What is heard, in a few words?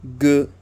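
"gue", French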